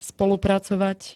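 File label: "spolupracovať", Slovak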